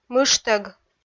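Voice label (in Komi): "мыжтӧг", Komi, female; kpv audio dict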